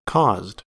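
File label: "caused", US English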